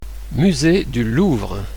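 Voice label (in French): "Musée du Louvre", French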